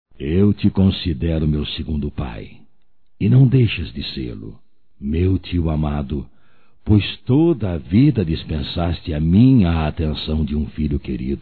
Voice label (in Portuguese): EVANG ANIVERSÁRIO DE TIO - M; Telemensagens 2017; Aniversarios